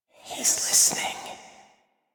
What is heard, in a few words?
Intelligible Whisper F; Rell; Warframe; Harrow